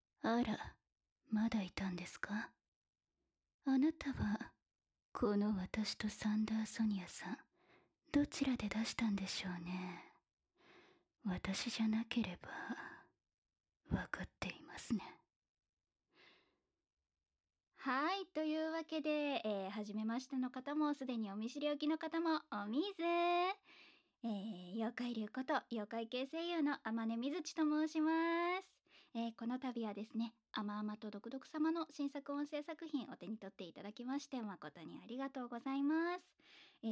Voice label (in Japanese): フリートーク